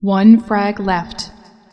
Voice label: "1 frag", female; feedback